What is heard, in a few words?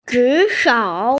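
"jushou", Mandarin Chinese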